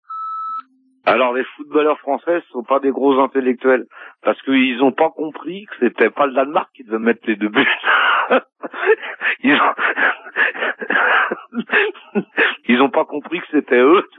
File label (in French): Coupe du Monde repondeur